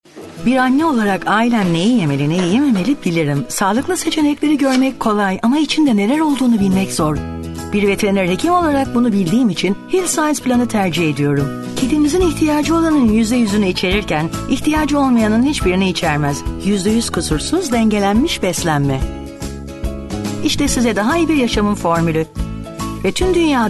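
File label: Turkish, female, promo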